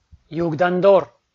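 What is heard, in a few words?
"югдандор", Komi, male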